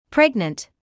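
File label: "pregnant" English, British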